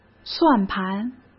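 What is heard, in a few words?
"算盘", Mandarin Chinese; chinese-flashcards; hsk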